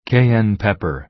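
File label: "cayenne pepper", American English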